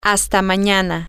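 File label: until tomorrow, spanish, female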